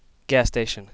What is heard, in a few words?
Plantronics Headset Quiet Gas station